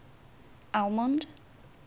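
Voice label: "almond", Brazilian, female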